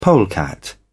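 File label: "polecat", British English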